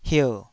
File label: "hier3", Taiwanese syllable